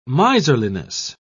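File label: "miserliness", British English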